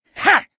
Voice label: Voices Vo Male Fight Attack; Mighty Party; Android

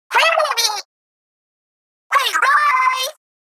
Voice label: Inkling Girl – Booyah - This Way; Splatoon 3; Splatune 3

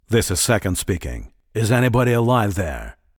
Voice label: Voice Dialog; we are the dwarves gamerip 2016

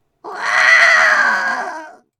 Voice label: Voices Female

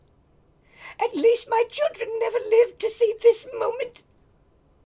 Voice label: Female Reactions

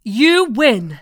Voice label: "You Win", Voices

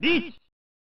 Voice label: "Neets!" (Male); pro mahjong kiwame 2; snes

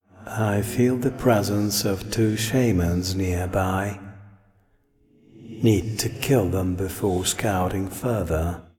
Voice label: Voice Monolog; we are the dwarves gamerip 2016

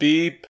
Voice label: Voices urgent; The Looker

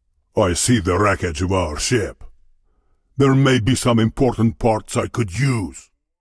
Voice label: Voice Dialog; we are the dwarves gamerip 2016

